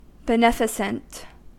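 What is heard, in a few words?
"beneficent", US English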